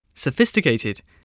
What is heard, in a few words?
"sophisticated", English voice